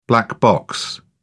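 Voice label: "black box", British English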